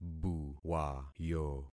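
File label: "buwayo", concatenated syllables; Affixing experiment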